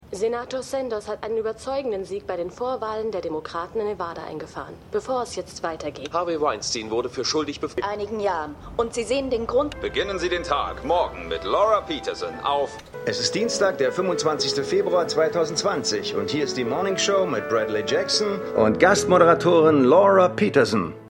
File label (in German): Div TV Sprecher Innen; The Morning Show